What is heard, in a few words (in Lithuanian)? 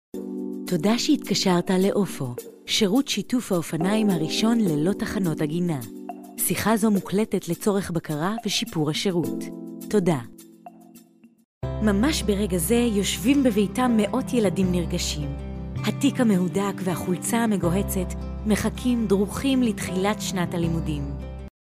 MOT Hebraju balsas, moteris